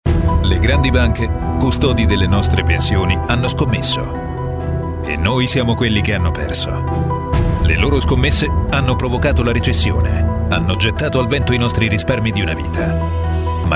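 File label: Italian documentary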